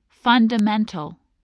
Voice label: "fundamental", voice, English

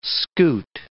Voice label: "scoot", English

